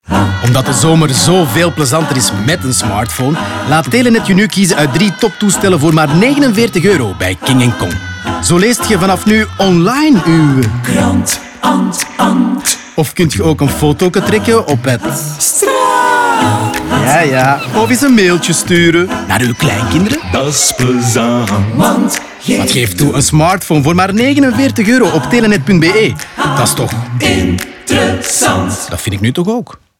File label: Radio Spot; Telenet 4G